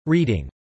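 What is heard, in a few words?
"reading" spoken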